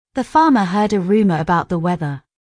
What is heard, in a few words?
british R; british vs american